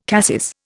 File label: "cassis", Indian